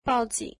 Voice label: Call the police, mandarin

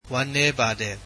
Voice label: Sorry, burmese

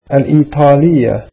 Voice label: "Italian", arabic

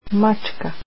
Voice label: cat, croatian

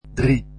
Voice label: three, dutch

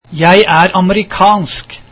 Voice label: Im American, norwegian

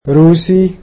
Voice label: "Russian", egyptian

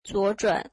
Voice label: Turn left, mandarin

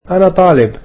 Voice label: Im a student, egyptian

